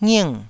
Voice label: "nging4", Taiwanese

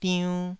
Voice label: "diunn2", taiwanese syllable

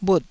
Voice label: "bhut4", taiwanese syllables

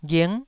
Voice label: "ghing9", taiwanese syllable

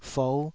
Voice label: "fou5", taiwanese syllable

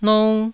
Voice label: "nou1", taiwanese

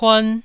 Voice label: "puan1", Taiwanese

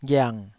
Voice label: "ghiang3", Taiwanese

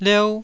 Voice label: "liou1", Taiwanese syllable

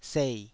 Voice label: "sei3", taiwanese

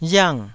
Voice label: "riang4", taiwanese

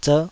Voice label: "zerh5", taiwanese syllables

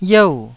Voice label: "yeu4", taiwanese Syllables

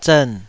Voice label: "zern3", Taiwanese

